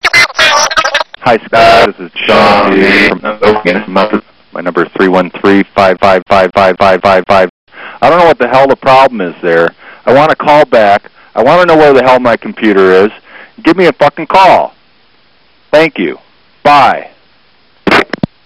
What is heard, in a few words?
angry customers